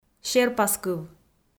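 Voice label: "серпаскыв", Komi, female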